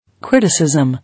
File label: "Criticism" English